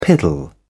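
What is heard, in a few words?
"piddle", British English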